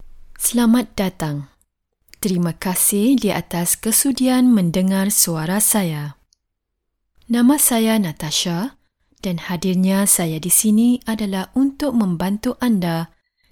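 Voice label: Malay General; GoLocalise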